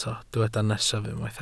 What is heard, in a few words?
"nesaf", Welsh